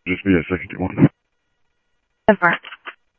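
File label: Sheriff East County, scanner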